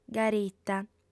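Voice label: "garita", Sardinian, female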